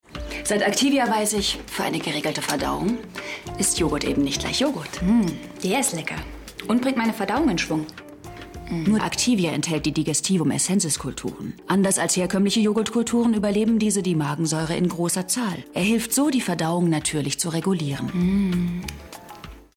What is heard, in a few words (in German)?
tv werbung; activia differenzierung; LOFT VOICES